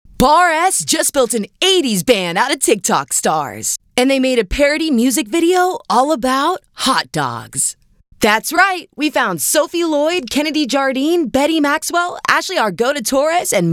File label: female voiceover promo; bar s